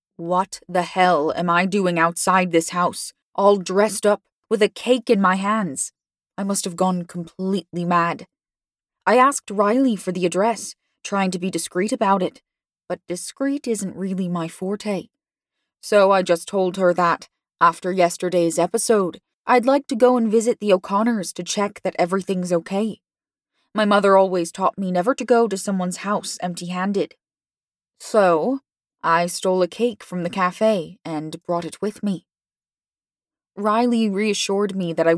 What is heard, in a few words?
Irish Contemp